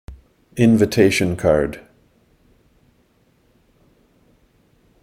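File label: "invitation card", English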